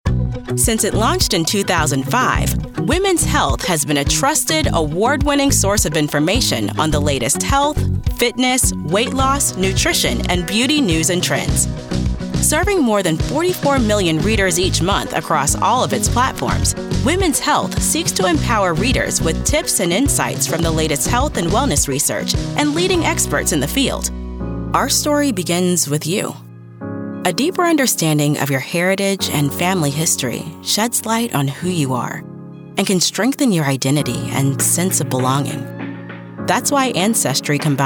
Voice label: Corporate

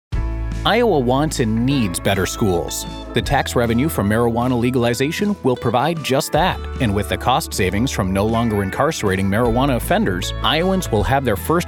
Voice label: Political, voiceover; Cannabis

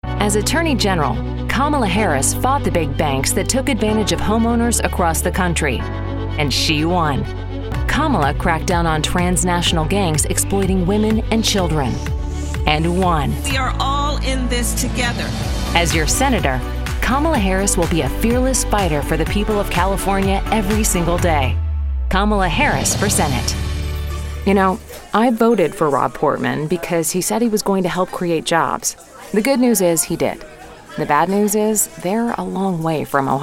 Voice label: Political, voiceover; Blue Wave Voiceover

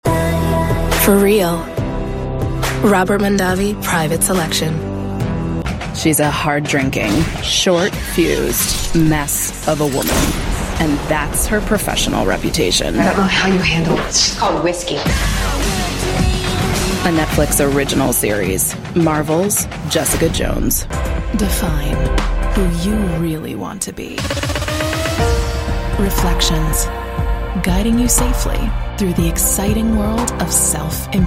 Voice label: Gravel and Texture

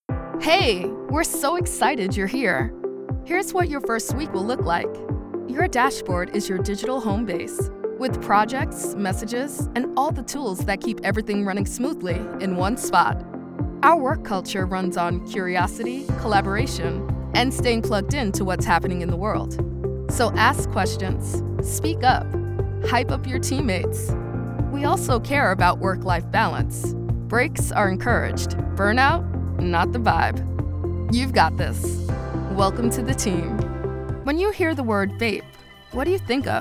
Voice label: E Learning, voiceover

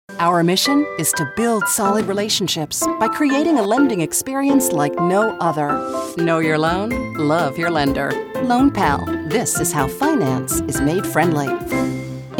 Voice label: Explainer

